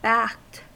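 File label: "backed", American English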